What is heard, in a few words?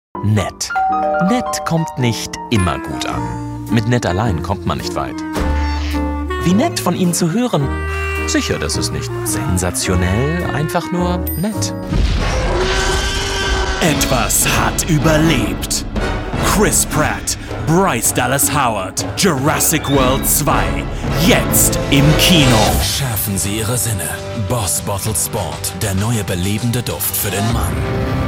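German, General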